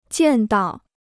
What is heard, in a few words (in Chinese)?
"见到", Chinese